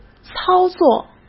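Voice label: "操作", Mandarin Chinese; hsk; chinese-flashcards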